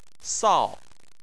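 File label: "sao" tone 4, Chinese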